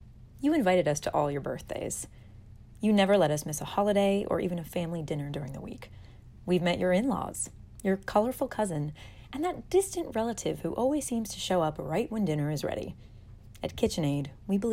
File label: Voice Over